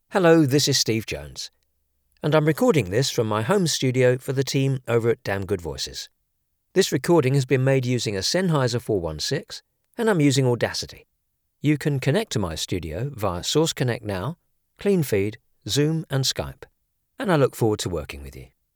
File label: Home Studio Test Recording